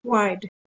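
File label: "wide", English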